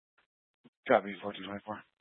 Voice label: Fire Department Dispatch, scanner